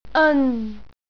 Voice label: "eon", Cantonese